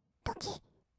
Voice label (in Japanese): "ドキッ", kawaii voice; ASMR; 色々なワンショット